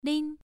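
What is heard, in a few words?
"lin", tone 1, pronunciation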